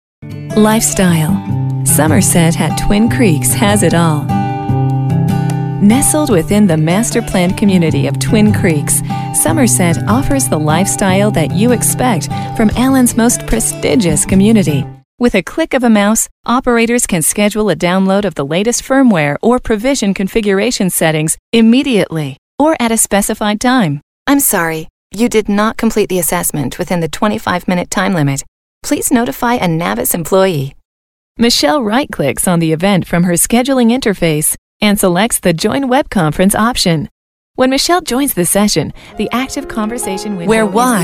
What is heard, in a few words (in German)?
usa female filmvertonung sprecher